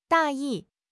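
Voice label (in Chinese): "大意", Chinese; HSK6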